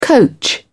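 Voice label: "coach", English, British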